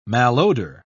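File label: "malodor", English, uk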